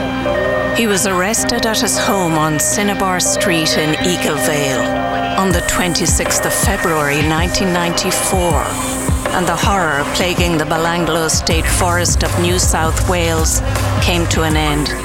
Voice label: Narration